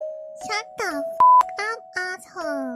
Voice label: english class; himemori luna